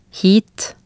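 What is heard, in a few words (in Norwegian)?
"hit", Norwegian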